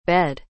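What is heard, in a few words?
"bed", English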